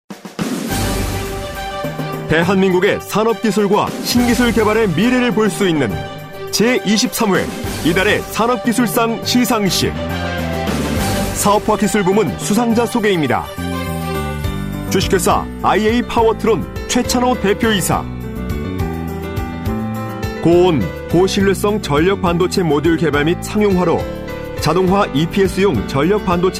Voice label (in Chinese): 外籍韩语男大气激情